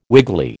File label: "wiggly", American